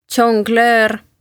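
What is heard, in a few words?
"zonglöör", Estonian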